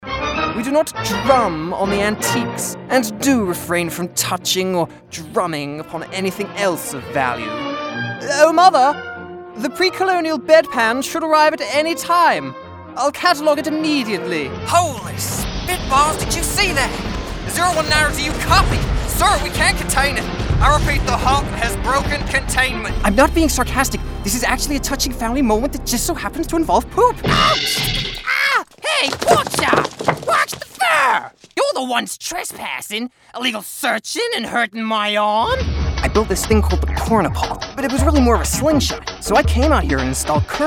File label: Animation and Video Game